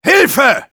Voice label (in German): Engineer help me, de